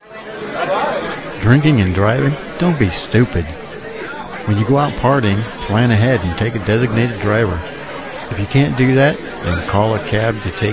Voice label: Drunk Driving PSA